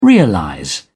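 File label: "realize", British English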